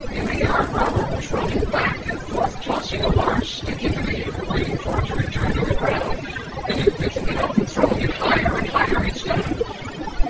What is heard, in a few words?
male noisy